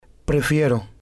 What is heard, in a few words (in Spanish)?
"prefiero", Spanish